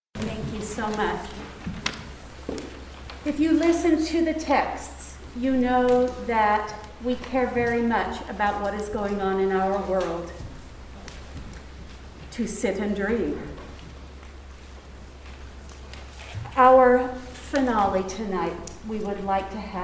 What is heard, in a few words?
Narration